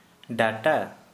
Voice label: Santali, tooth

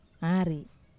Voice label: "ari iii", Wamesa

